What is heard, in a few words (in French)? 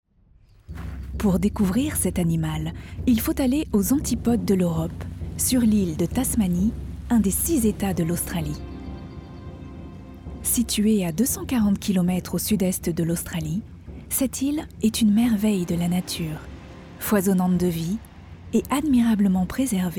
DOCUMENTAIRE